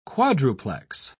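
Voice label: "quadruplex", English, British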